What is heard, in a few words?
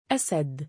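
"2asad" male, Arabic; أَسَد; アサド; ライオン、獅子；勇敢な男、勇猛な男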